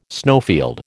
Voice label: "snowfield", American